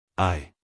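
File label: "eye", American English, male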